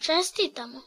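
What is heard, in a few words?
congratulation, Scottish Gaelic; gcompris-data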